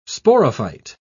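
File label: "sporophyte", British English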